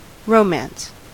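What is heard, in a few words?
"romance", American English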